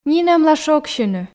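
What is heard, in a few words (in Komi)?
нинӧмла шогсьыны, Komi, female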